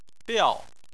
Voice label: "biao4", chinese

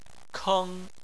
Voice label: "keng1", Chinese

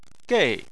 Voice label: "gei4", Chinese

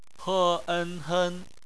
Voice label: "hen", Chinese; phonetics; bpmf

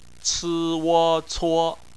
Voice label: "cuo", Chinese; BPMF spelling

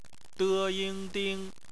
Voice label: "ding", Chinese; phonetics; bpmf

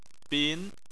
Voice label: "bin2", chinese

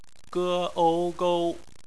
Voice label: "gou", Chinese; BPMF spelling

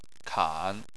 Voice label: "kan3", Chinese

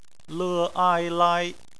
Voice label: "lai", Chinese; phonetics; bpmf

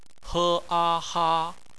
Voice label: "ha", Chinese; phonetics; bpmf

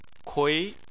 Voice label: "kui2", Chinese

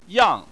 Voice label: "yang4", Chinese

